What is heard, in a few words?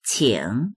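"qing3", Mandarin Chinese; 请; お願いする、招待する、どうぞ～してください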